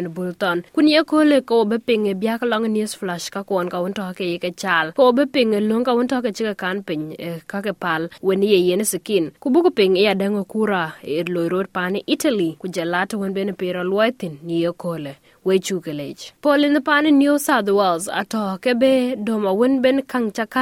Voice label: dinka; SBS Dinka News Flash 07/05/2024

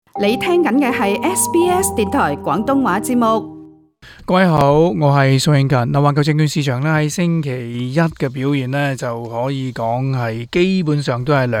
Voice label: cantonese; 市場按兵不動 靜候美聯儲退市安排; SBS Chinese; global finance

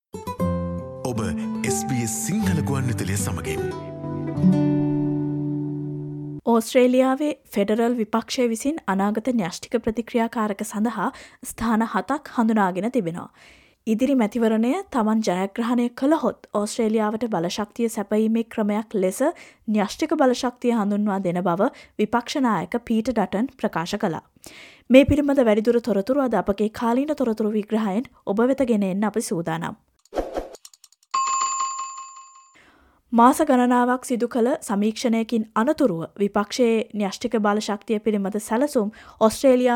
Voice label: explainer sinhala nuclear power; Dutton declares that nuclear power will be introduced if they win the election: Explainer 20th June; SBS Sinhala

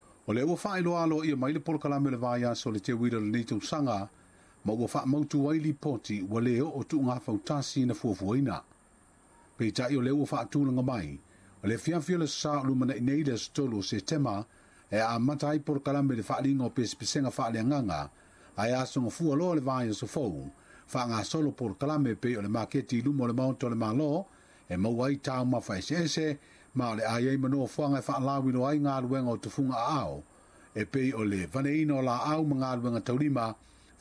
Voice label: samoan; SBS Samoan; Faamanatu Teuila 2017 i Samoa e aunoa ma tuuga fautasi

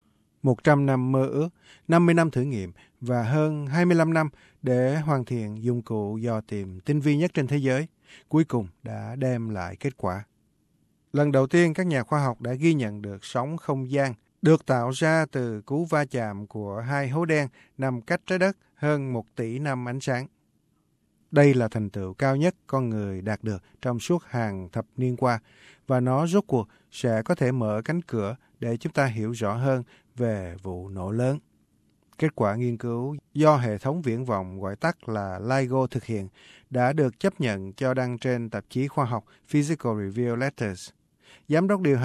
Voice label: vietnamese; Lần đầu tiên ghi nhận được sóng không gian; SBS Vietnamese

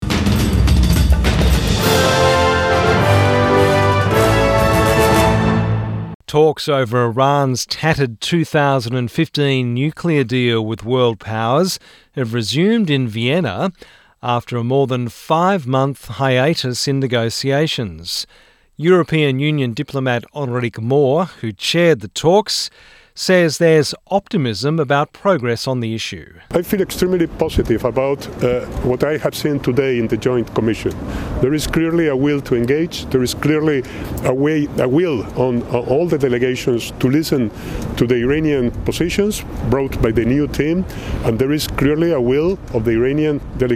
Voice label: english; SBS News; Vienna hosts talks over Iran's tattered nuclear deal

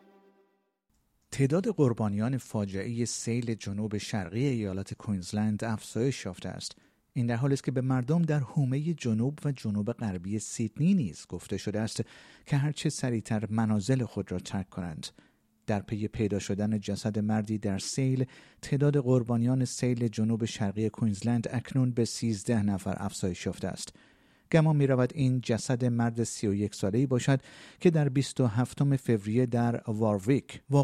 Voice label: persian; SBS Persian; افزایش تعداد جان باختگان سیل کوئینزلند؛ انتظار روزهای «بارانی و خطرناک» بیشتر در نیوساوت ولز

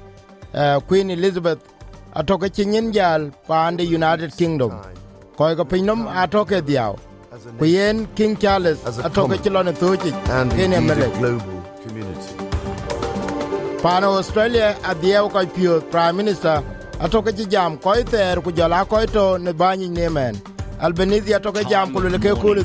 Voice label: dinka, podcast; SBS Dinka News 10/09/2022; Queen Elizabeth

